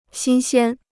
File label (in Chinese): "新鲜", Chinese